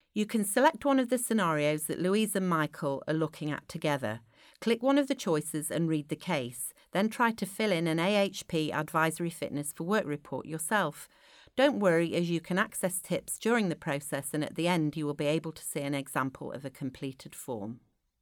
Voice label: narration; Allied Health Professional (AHP) Health and Work Report